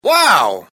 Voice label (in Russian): mujchina wow